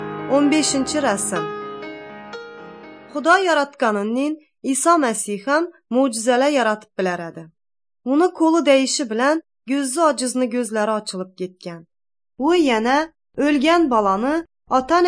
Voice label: uzbek northern kipchak; good news

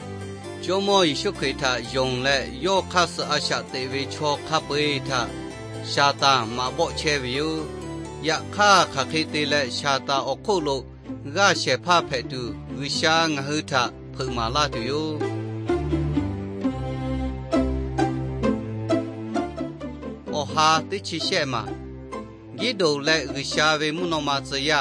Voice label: victory through god lahu na, nt drama

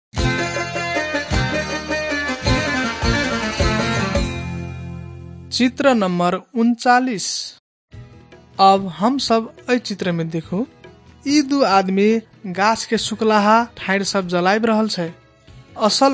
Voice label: maithili thetiya; wycliffe bible translators inc nt drama; banhiyaa khabar good news

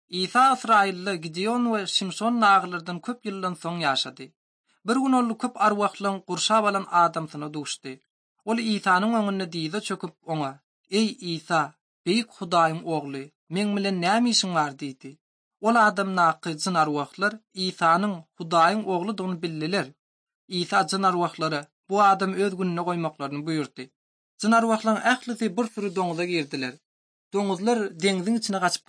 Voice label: turkmen, ot drama

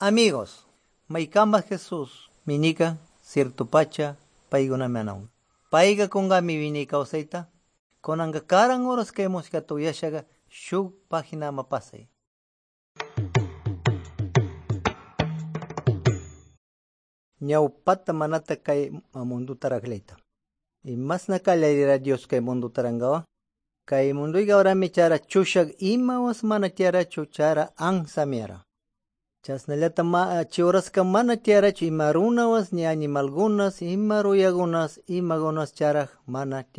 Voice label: good news quichua de pastaza

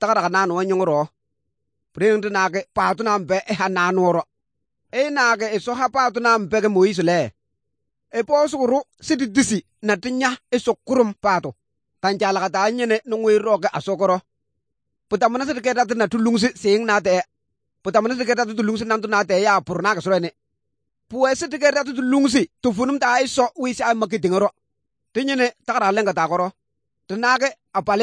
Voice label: good news, kabiye bible

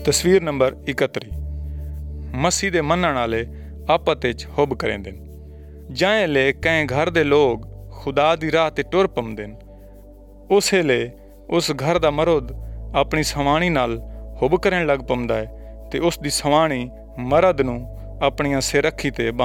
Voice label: saraiki jangli; good news; picture 31 casting out evil spirits

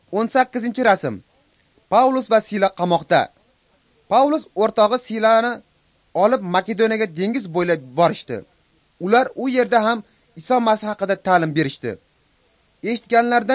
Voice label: uzbek northern; acts of the holy spirit

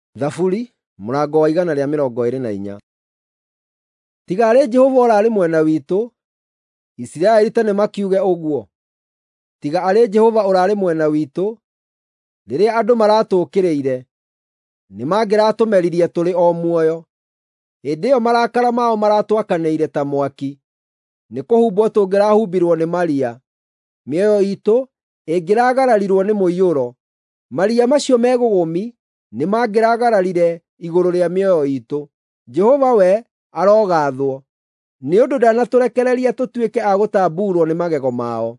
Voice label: psalms, gikuyu drama

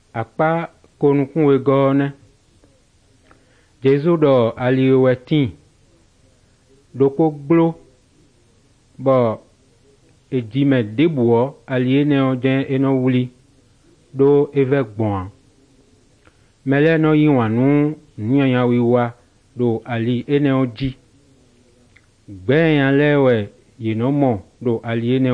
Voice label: good news, fon fongbe bible drama

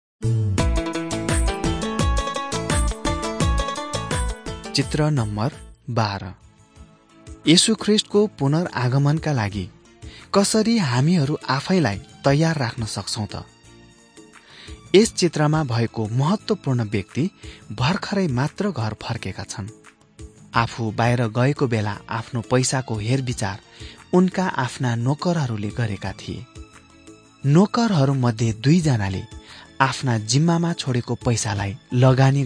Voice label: jesus teacher and healer nepali

